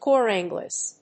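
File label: "cor anglais", English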